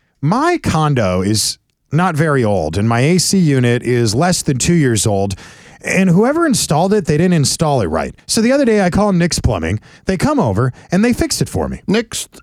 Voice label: RADIO AD; nicks plumbing radio ads